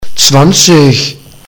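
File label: "twenty", German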